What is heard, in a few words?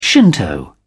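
"shinto", British English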